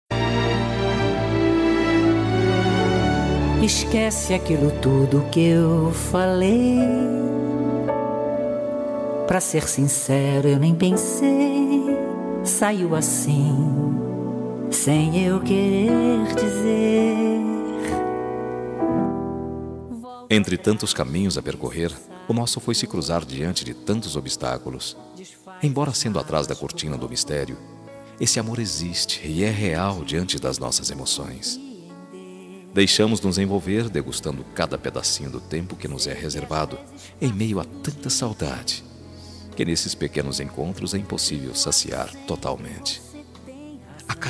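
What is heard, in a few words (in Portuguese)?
ROMANTICA PARA EX male, Telemensagem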